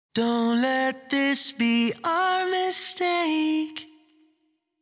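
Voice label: Vocal Phrase Don't Let This Be Our Mistake 128 Bb minor Wet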